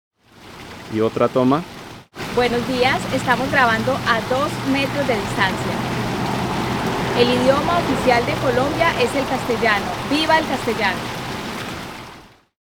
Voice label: park, Video Mic Go II